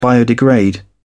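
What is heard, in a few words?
"biodegrade", English, uk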